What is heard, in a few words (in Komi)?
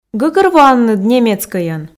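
гӧгӧрвоанныд немецкӧйӧн, Komi, female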